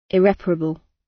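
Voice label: "irreparable", British English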